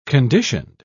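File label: "conditioned", British English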